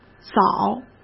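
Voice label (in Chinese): "枣", Mandarin Chinese; chinese flashcards; hsk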